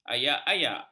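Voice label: "ayak-ayak", Malay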